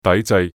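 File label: "抵制", Cantonese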